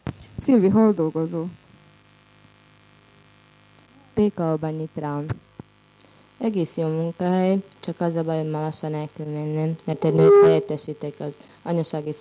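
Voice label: dialect collections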